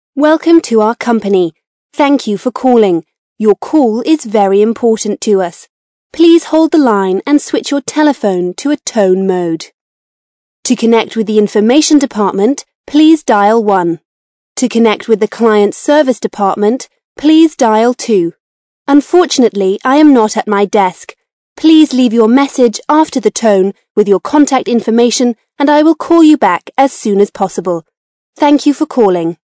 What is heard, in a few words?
british english female